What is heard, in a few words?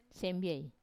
"sembie" moon, Wamesa